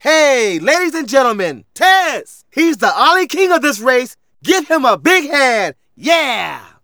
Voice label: Narration